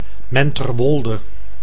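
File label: "Menterwolde", Dutch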